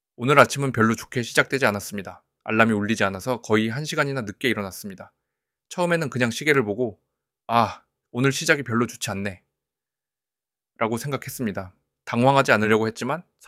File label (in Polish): koreanski